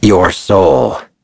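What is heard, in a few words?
Vox Skill, voices heroes English; Shakmeh; kings raid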